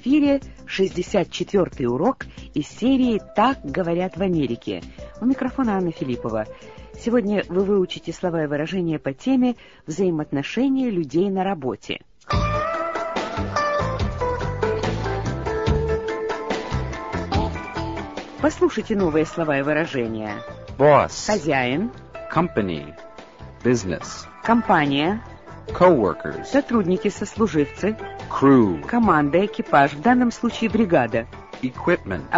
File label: russian english